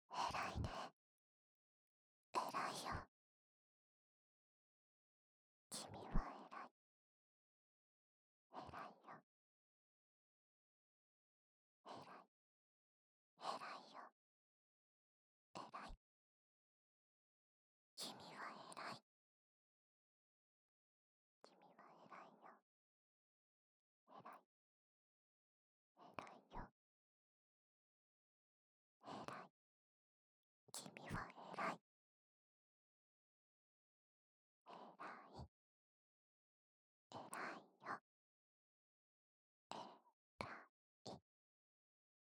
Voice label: 囁き 右耳 君はえらいよ; 右耳ver

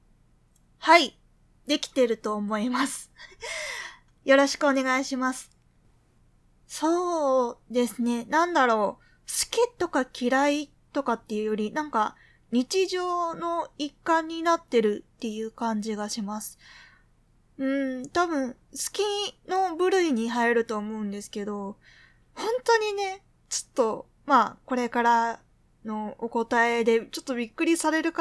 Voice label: オナニーインタビュー 回答 ノイズ除去前 モノラル

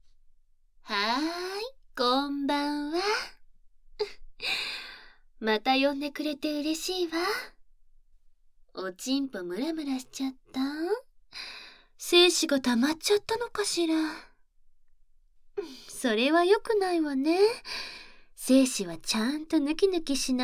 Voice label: 耳舐めしながら手コキ, ASMR

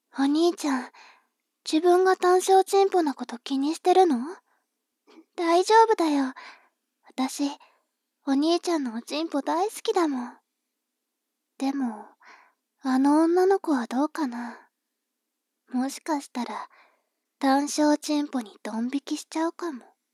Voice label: 妹 台詞

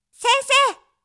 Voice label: 先生！, 妹系 ぶりっ子 元気な子

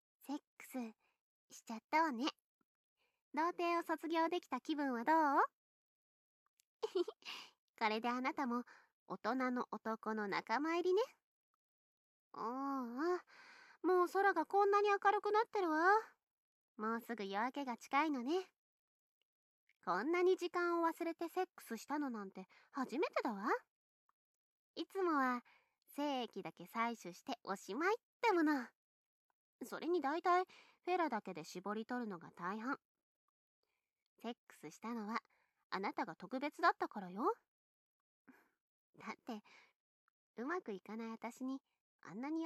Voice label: 添い寝

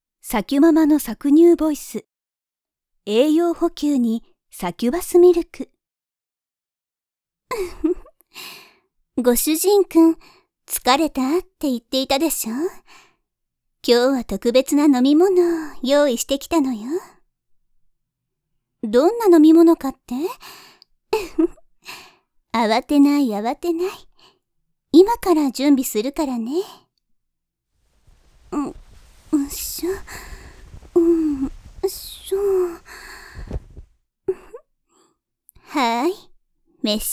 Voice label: 搾乳ボイス BGM無し 効果音入り; 押し掛けサキュバスママはなんでもしてくれる; サキュママ

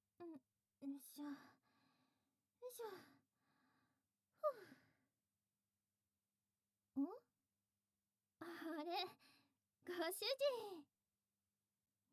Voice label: ご奉仕耳かき SEなし; ベルベーヌ

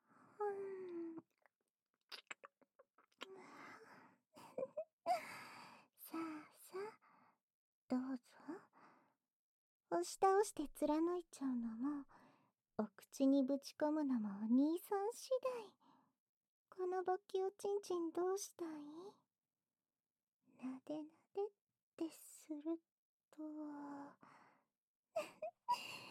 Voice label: 誘惑ささやき, ASMR; 手コキゲーム

